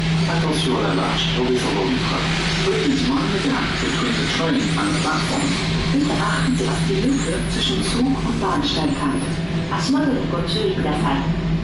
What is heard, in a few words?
mind the gap, Metro